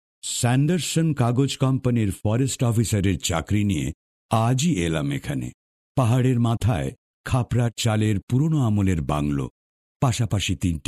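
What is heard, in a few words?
Bengali, male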